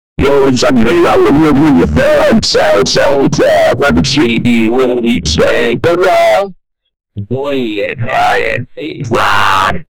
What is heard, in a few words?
annoying radio dj